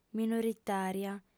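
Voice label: "minoritària", female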